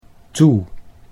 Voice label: "toe", Dutch

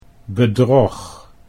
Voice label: "bedrog", Dutch

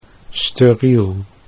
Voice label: "steriel", Dutch

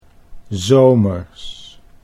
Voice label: "s zomers", Dutch

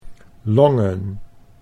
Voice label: "longen", Dutch

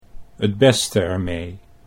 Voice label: het beste rmee, Dutch

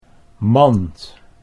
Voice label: "mand", Dutch

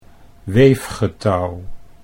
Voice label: "weefgetouw", Dutch

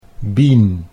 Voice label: "Bean", Dutch

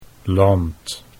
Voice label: "land", Dutch

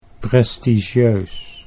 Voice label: "prestigieus", Dutch

